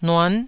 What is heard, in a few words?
"nuan9", taiwanese